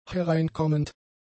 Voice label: "hereinkommend", German; adjectives